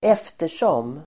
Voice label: "eftersom", Swedish